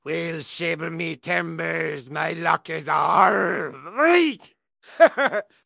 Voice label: happy; fertig classic games; blackwind